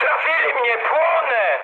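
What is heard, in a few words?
Polish on fire mood high; speech; War Thunder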